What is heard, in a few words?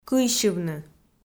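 "кыйсьывны" Komi, female; kpv audio dict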